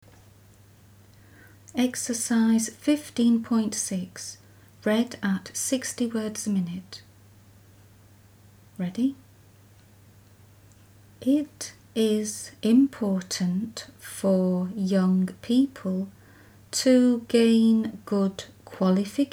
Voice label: 60wpm; Teeline